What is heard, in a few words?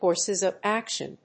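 "courses of action", English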